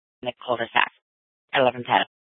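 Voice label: Scottsdale Police South Dispatch; Scttsdle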